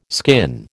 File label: "skin", American